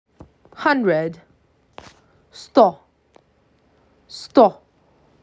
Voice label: MAC 6, macedonian phrasebook